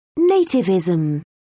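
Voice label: "nativism", British English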